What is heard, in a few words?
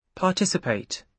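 "participate", British English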